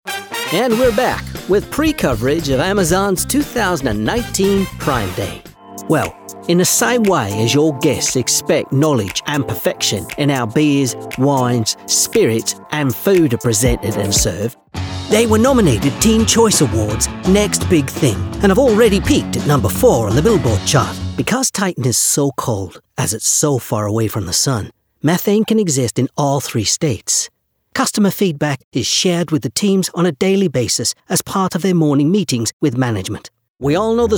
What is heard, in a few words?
US UK CHARACTER